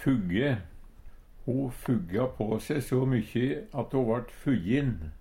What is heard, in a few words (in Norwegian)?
"fugge", Numedalsmål; Ho fugga på se so mykkjy at ho vart fuggjin.